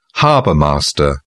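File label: "harbour master", British English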